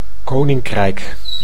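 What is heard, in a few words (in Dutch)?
"koninkrijk", Dutch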